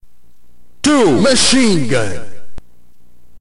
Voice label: narrator